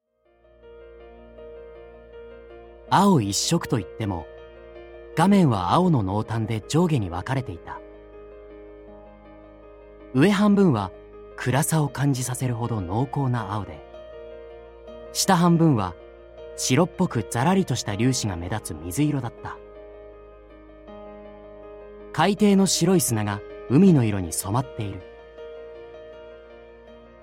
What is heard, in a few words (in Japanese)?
ナレーション